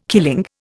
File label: "killing", Indian